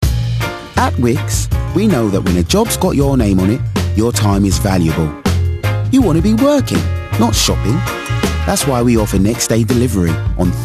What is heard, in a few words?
Ad light friendly Street; Wickes